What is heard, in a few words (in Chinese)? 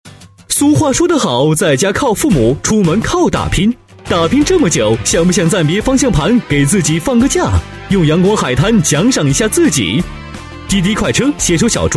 男, 国语男声配音; 飞碟说; 滴滴快车